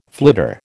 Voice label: "flitter", American